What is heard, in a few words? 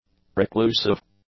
"preclusive", english; adjectives